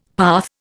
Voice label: "path", Indian; Dictionary

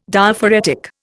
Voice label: "Diaphoretic", Indian

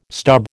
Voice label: "stub", American

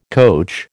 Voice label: "coach", American

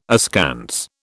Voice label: "askance", British

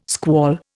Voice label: "squall", Indian

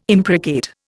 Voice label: "imprecate", Indian

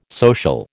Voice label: "social", American

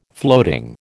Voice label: "floating", American

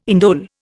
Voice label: "indole", Indian

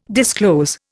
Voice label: "disclose", Indian

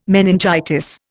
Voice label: "meningitis", Indian

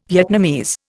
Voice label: "Vietnamese", Indian

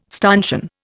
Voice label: "stanchion", Indian

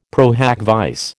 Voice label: "pro hac vice", American

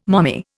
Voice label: "mommy", Indian

